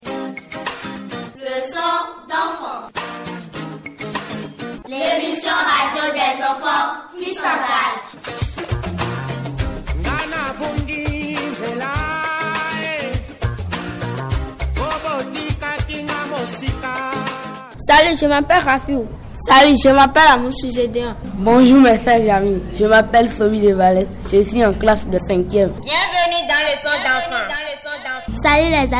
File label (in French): Message d'accueil; COURT